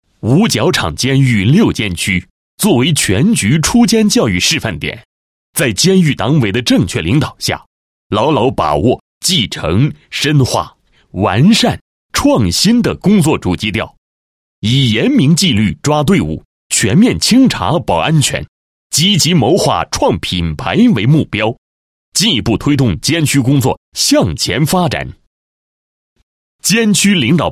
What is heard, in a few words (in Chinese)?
男 政府 监狱六监区先进事迹, 配音案例